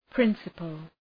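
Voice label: "principal", English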